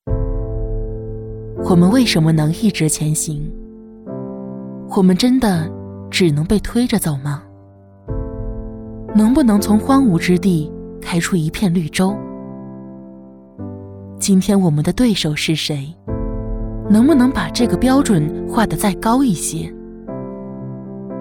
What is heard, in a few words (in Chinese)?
【走心旁白】